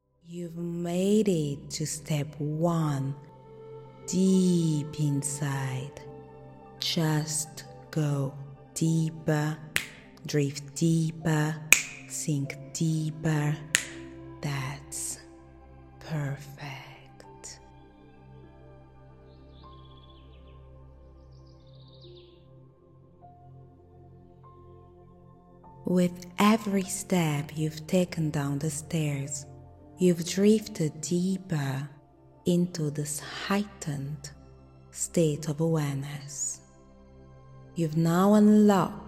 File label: Self Love Hypnosis for Healing the Heart